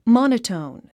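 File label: "monotone", English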